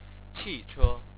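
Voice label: "qi4che1", Chinese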